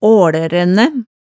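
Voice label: "aalærænnæ", Hemsedal dialect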